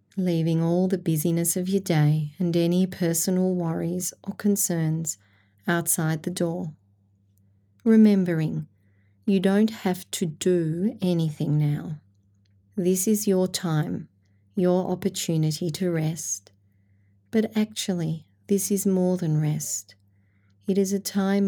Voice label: meditation